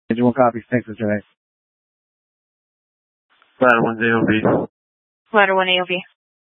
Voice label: Fire Dispatch; K8 - FDCOM 8 - South; C- Chandler